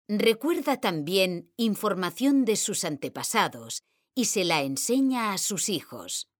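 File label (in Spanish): "recuerda", male; mekanta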